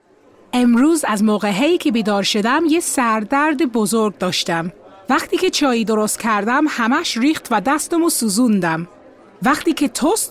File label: Farsi